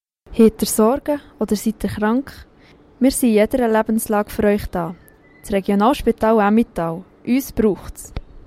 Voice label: Jingle; Regionalspital Emmental AG

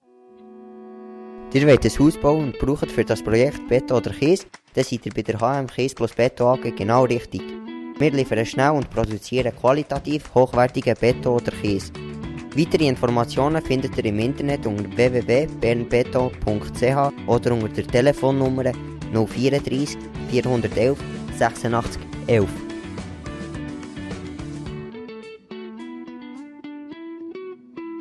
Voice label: Jingle; HM Kies + Beton AG

